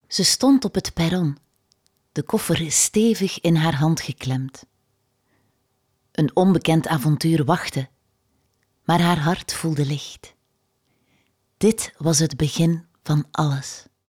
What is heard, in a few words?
Flemish audiobooks; flemish voice actors